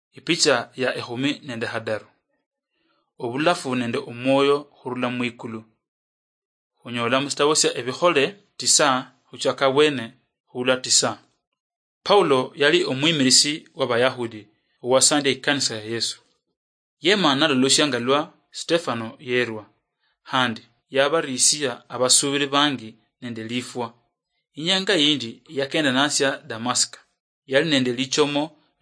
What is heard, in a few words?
acts of the holy spirit luyia marachi, drama